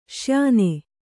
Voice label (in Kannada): "ಶ್ಯೇನ", Kannada